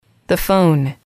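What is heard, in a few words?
"phone", English, female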